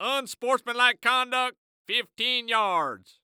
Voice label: Sports Human Voice Male Football Referee; Unsportsmanlike Conduct Fifteen Yards

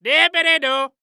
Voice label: Cartoon Voice Goofy; AdobeCC; Dippity Doo

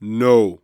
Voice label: "No", Human Male Deep

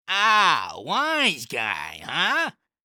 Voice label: Cartoon Voice Wise Guy Huh

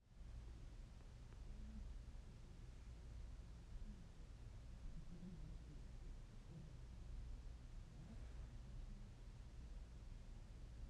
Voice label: Muffled Voices Thumps Thru; Blackmagic Fairlight

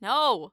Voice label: "No", Human Female

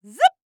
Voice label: Cartoon Voice "Zip" Female; AdobeCC

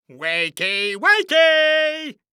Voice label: Cartoon Voice "Wakey Wakey" Funny; AdobeCC

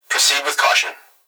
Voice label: Human Young Male Voice Police Dispatch; Proceed With Caution; Emergency

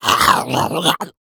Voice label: Horror Male Demon Talk

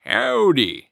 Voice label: Human Cowboy Say "Howdy" Voice

